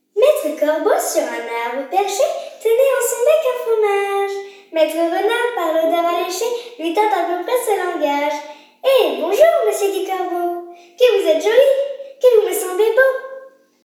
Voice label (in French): VOIX ENFANT FILLE